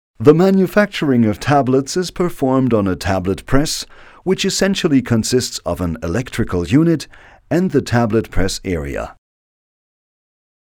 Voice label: Neutral; Eng UK

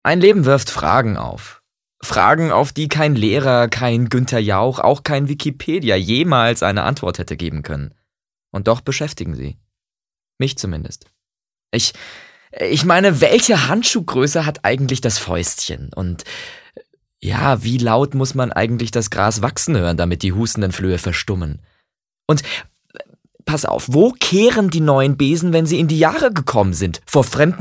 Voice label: Schauspiel Dynamisch

